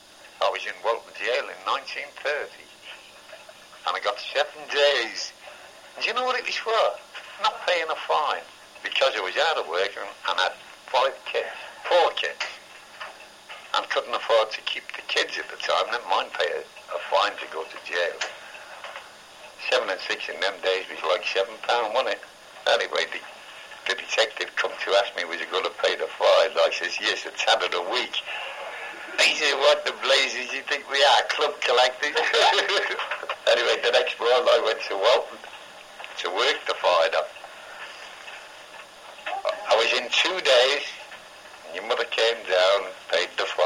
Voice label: Liverpool, english accents